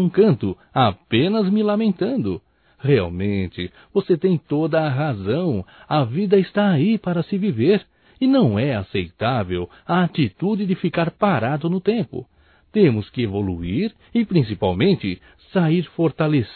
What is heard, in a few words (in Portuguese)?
AGRADECIMENTO PELA MENSAGEM (M), Só Voz; Telemensagens; AGRADECIMENTOS